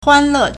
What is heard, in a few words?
"huan1 le4", Chinese